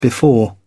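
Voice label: "before", en, uk British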